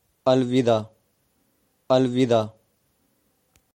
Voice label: Goodbye punjabi